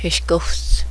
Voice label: porcupine, cheyenne language